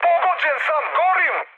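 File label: Serbian on fire mood high, speech; War Thunder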